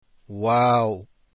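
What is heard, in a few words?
waw, arabic letters; deen path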